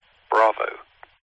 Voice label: vehicles airplane pilot radio chatter says phonetic alphabet bravo